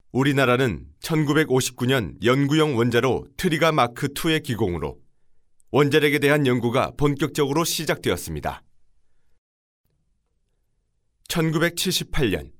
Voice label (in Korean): 기업홍보